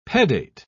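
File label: "pedate", English, British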